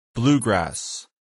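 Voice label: "bluegrass", English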